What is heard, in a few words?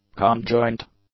"conjoint", English; adjectives